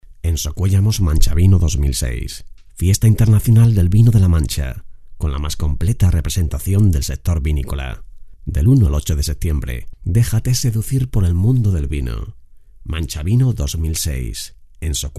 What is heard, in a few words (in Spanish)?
locutor